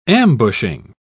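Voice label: "ambushing", English, British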